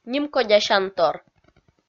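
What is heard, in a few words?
"нимкодясянтор", Komi, female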